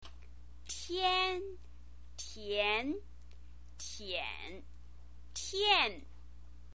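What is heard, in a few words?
"tian", Mandarin Chinese pinyin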